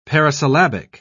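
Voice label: "parisyllabic", British English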